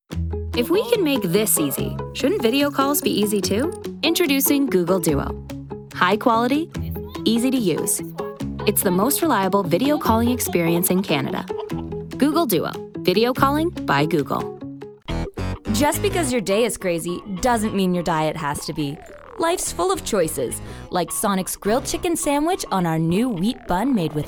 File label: Commercial Demo